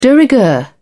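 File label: "de rigueur", en, British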